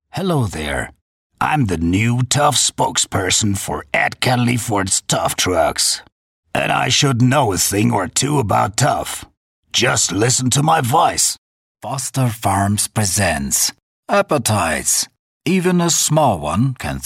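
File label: German, male, English, commercials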